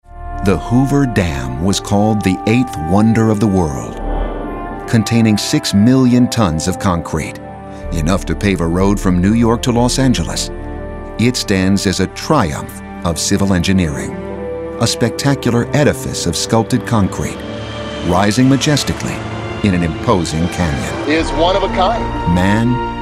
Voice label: narration, voice